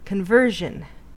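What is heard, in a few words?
"conversion", American English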